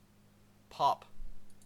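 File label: "pop", Canadian English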